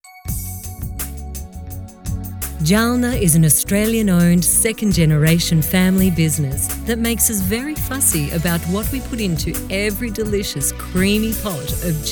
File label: Radio